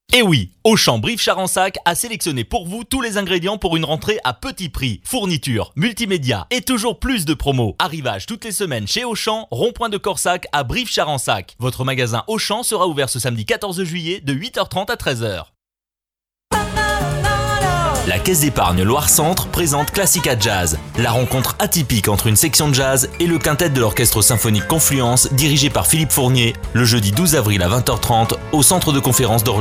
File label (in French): voix pub; DEMO VOIX PUB; catalogue de voix off